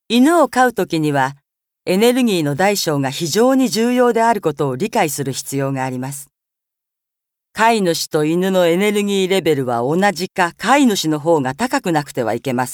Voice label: 解説ナレ

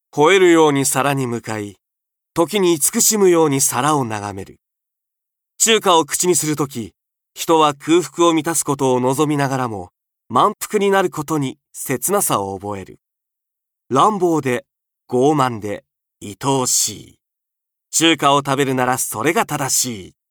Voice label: 料理紹介ナレ

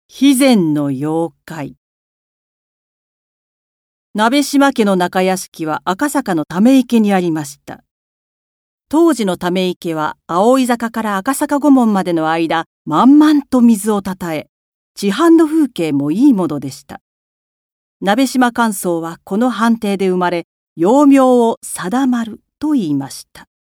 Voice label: 歴史解説ナレ

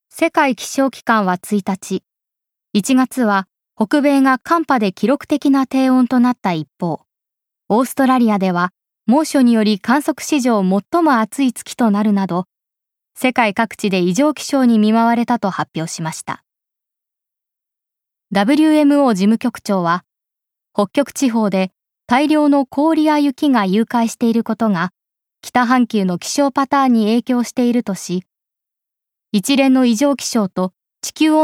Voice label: ニュース

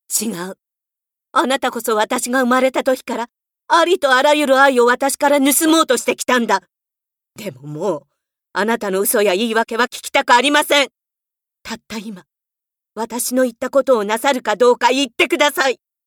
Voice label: 怒り

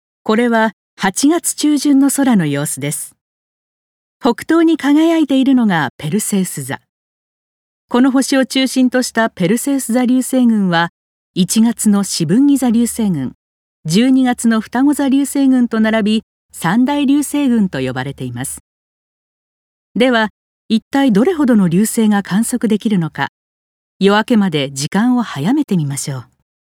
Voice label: プラネタリウムナレ

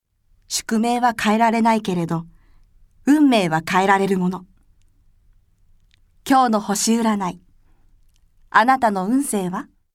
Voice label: 語りかけCM

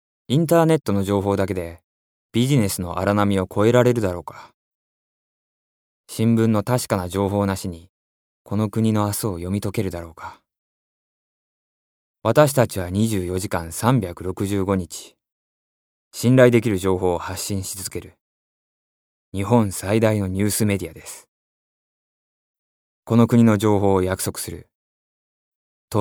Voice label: 新聞社CM

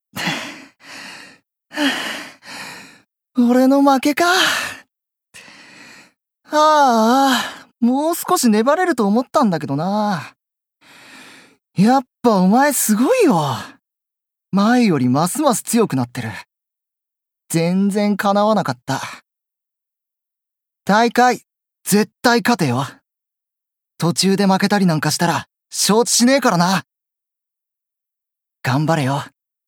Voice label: 高校生

